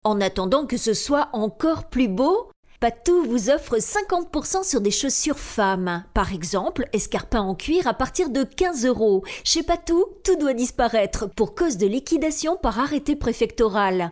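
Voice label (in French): French, female, narration; voice demos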